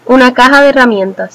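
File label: toolbox desc, Spanish; tuxpaint-stamps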